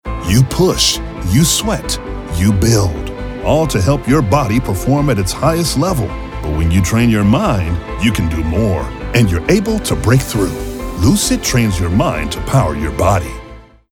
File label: Vo Spot; Lucid